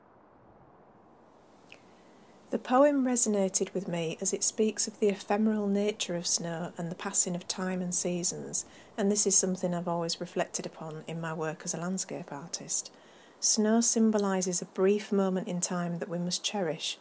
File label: audio voiceover